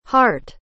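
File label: "heart", English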